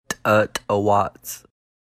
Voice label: star, Lushootseed